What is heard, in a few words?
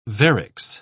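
"varix", British English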